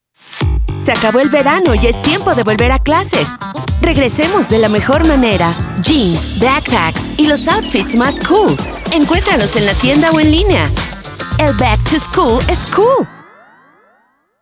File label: Kind Young Upbeat